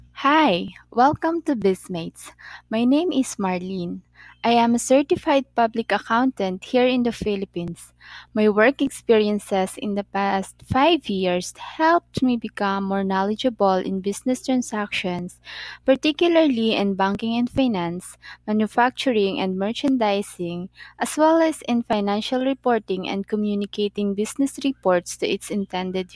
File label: trainer voice